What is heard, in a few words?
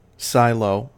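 "silo", American English; pronunciations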